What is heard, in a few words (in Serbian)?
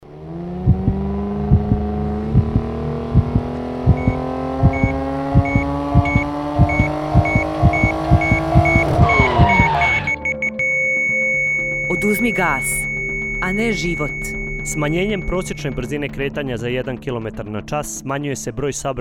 Radio džingl; Brzina